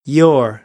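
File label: "your", American English; AmericanBritish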